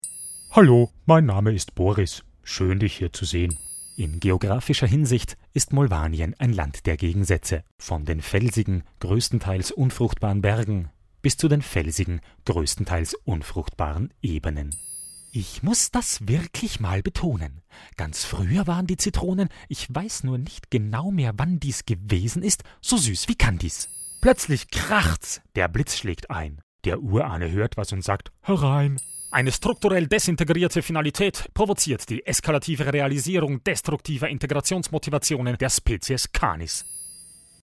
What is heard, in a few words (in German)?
Oesterreich M8